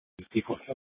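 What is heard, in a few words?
Scottsdale PD North Dispatch; Nth Dispatch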